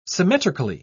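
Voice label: "symmetrically", English, British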